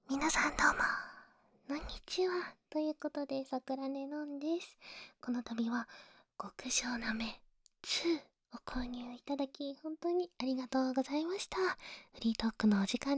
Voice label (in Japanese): フリートーク; まったりフリートーク